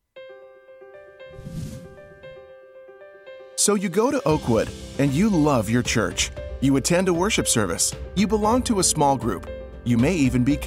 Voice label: Explainer, explainer voiceovers; Oakwood